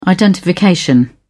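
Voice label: "identification", British English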